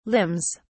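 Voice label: "limbs", British English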